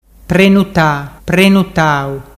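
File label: "prenutatau", Ligurian dialect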